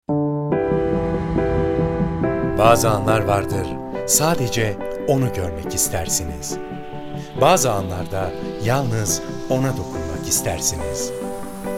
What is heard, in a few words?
turkish m commercial, Turkish voice; voice demos